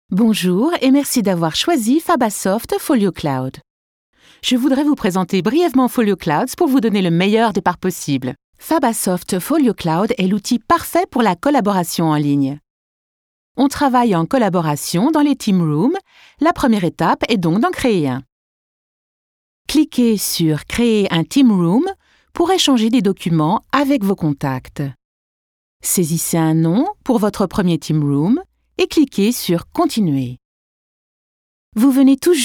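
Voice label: French e-learning; sprecher; franzoesisch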